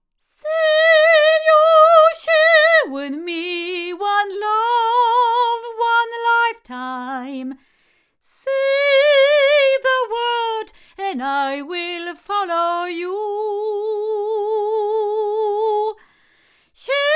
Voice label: Violet Dolly female, microphones